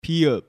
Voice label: "Bieup", Korean